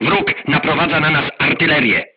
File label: voice message art shooting warning, crew tanks polish